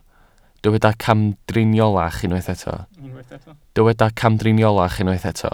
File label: "camdriniolach", Welsh